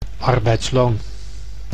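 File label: "arbeidsloon", Dutch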